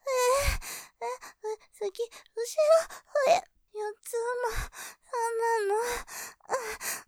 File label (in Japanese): 導入 ふや声; 次?後ろ?四つん這いなの??; ワンワンスタイル; ループで楽しむ！シェフの気まぐれ抜き抜きコース; モノラル